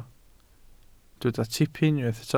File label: "tipyn", Welsh